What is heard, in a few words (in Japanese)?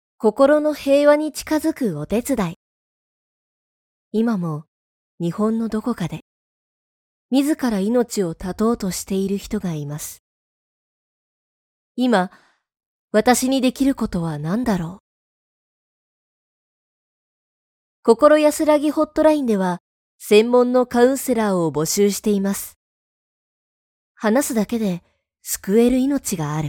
ボイスサンプル 心やすらぎ